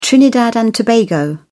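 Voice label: "trinidad and tobago", British English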